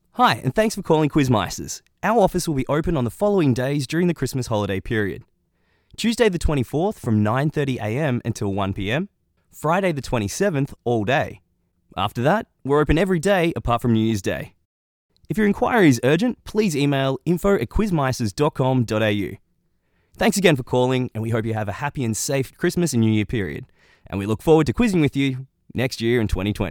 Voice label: voicemail

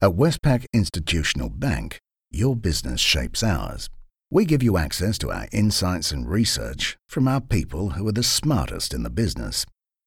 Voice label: Corporate Guy; English (Australian)

